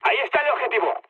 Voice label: near waypoint, mood med, speech; War Thunder